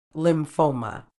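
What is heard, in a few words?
"lymphoma", British English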